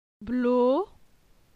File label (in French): "Blo", phonetique; la syllabe o